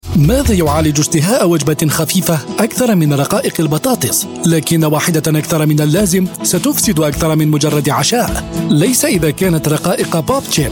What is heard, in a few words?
arabic voice over male narrator